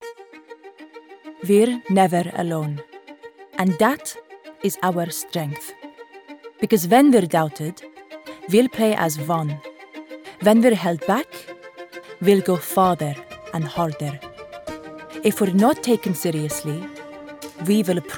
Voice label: Middle Eastern

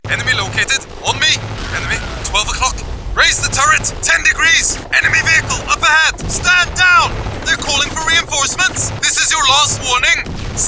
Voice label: NORWEGIAN ACCENT MILITARY GAMING

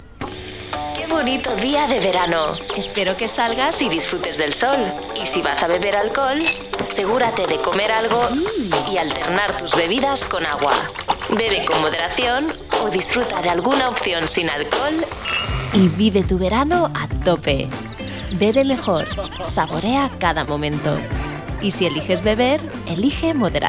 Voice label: Spanish commercials

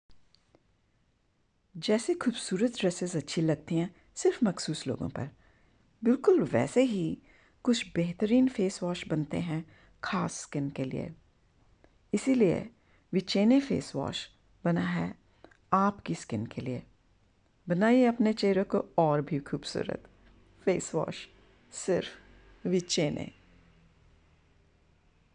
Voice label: Urdu Commercial friendly cosmetics

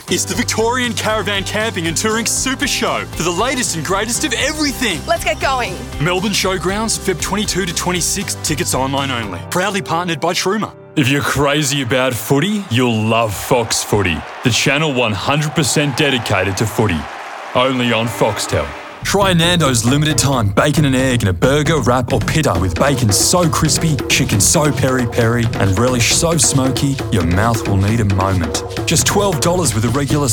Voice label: Australian Commercials

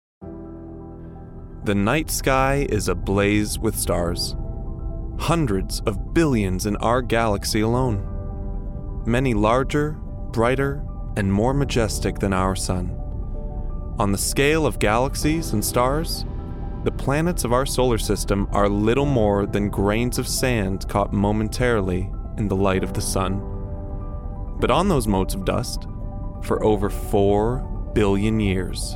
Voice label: Documentary

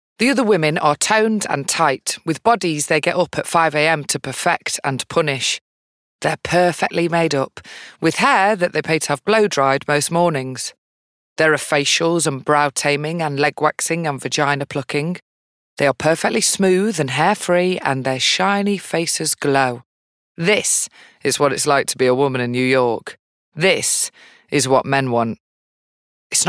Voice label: audiobook

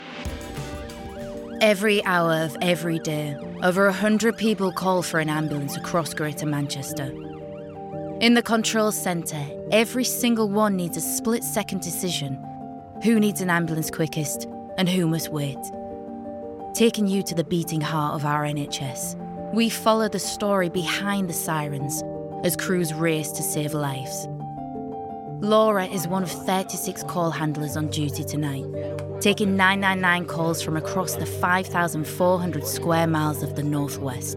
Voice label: Narration

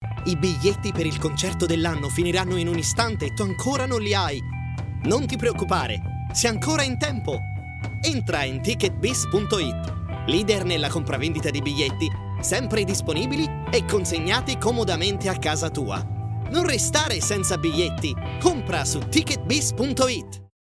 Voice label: Italian

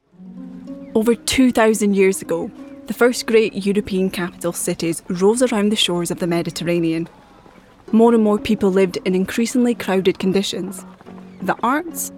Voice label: DOCUMENTARY

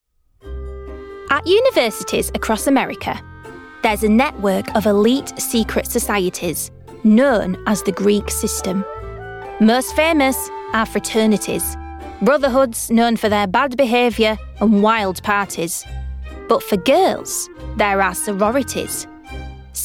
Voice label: documentary

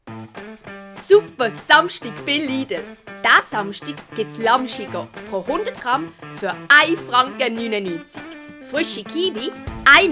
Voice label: Swiss German

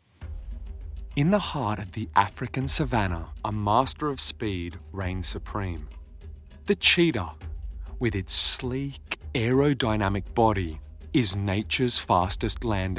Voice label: Narration

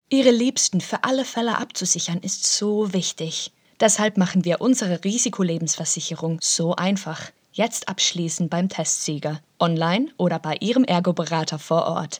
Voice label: german

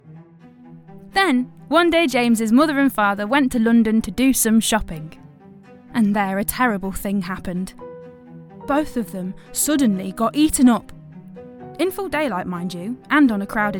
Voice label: audiobook

